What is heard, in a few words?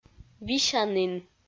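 "висянін", Komi, female; audio dict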